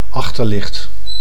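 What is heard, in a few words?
"achterlicht", Dutch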